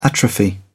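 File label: "atrophy", English, British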